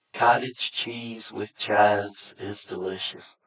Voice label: speech m